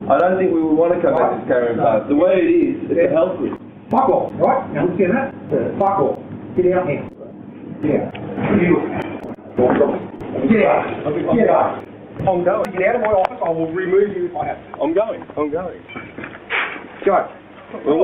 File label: Angry Caravan Park Owner; Woomera